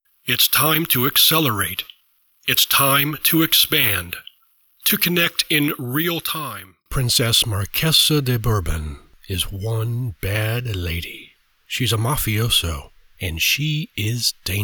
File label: Voice Over Dry